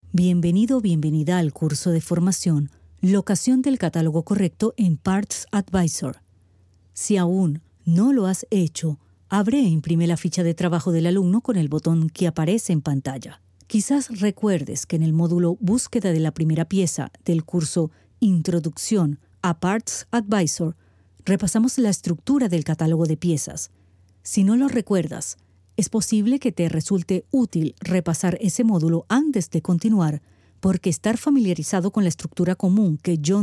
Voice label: Female, Spanish (LA), Venezuela